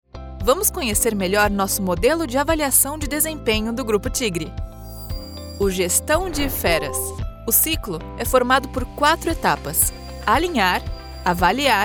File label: elearning training upbeat engaging female brazilian portuguese voice; tigre